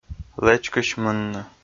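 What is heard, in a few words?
"лэчкысь мынны", Komi, male; kpv audio dict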